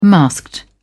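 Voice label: "masked", British English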